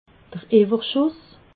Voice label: "iwwerschuss", alsace

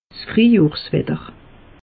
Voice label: "friehjohr watter", alsace; meteo

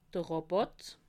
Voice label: "rabatt", alsace

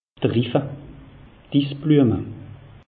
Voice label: "riffe", alsace; meteo

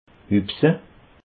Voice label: "hupse", alsace

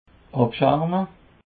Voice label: "abscherme", Alsace

